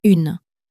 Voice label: "une", French

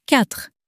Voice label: "quatre", French

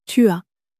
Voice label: "tu as", French

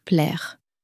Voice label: "plaire", French

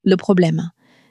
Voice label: "problème", French

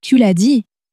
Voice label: "tu l'as dit", French

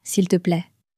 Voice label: "s'il te plaît", French; flashcards

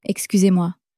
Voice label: "excusez-moi", French; flashcards

